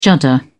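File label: "judder", British English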